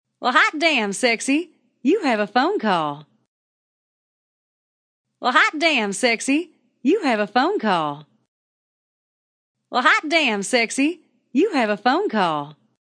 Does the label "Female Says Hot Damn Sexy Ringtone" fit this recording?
Yes